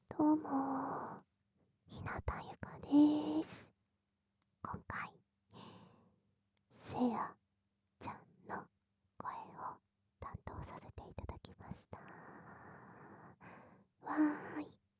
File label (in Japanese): フリートーク